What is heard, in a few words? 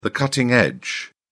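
"cutting-edge", British English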